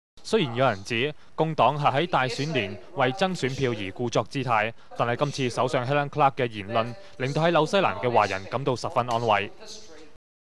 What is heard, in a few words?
reporter